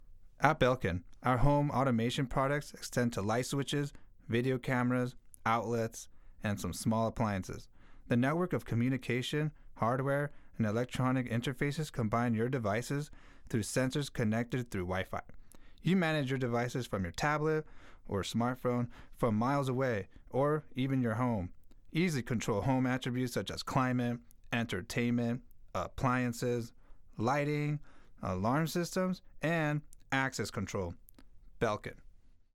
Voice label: Voiceover WarmAudio WA47Jr; Belkin